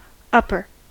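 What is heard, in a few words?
"upper", American English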